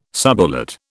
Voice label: "subulate", British